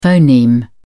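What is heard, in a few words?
"phoneme", British English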